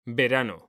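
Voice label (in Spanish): "verano", Spanish; mekanta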